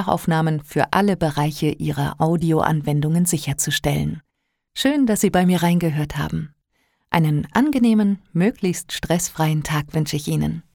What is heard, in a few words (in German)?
soundprobe eigenes studio